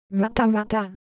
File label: Ewe, ideophones; Color Visual